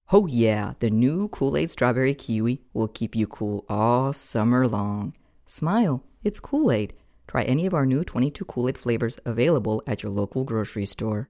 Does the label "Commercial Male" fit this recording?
No